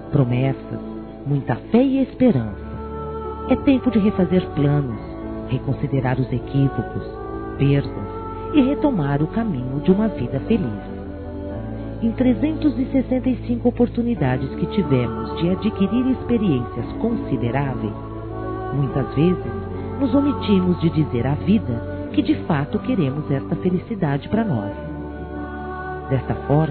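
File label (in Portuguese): ANO NOVO NEUTRO, Feminino; Telemensagens; Natal e Ano novo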